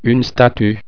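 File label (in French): "statue", French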